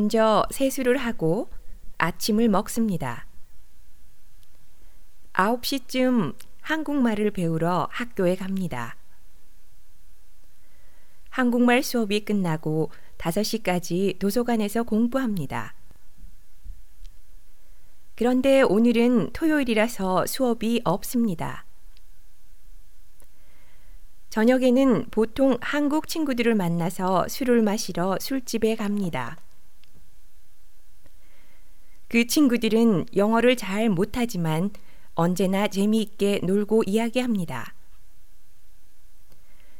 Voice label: Korean Text - A Monologue